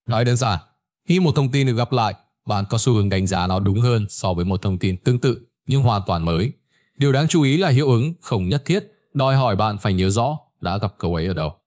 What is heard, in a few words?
narration